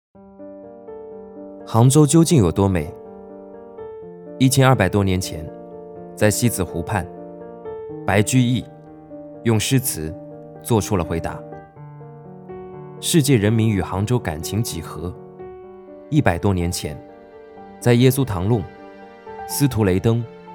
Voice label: 男 素人广告; 素人风格男声; 知乎

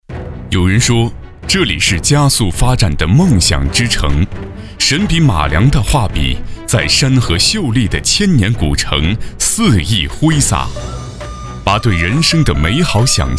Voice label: 男 大音 城市; 相约襄阳

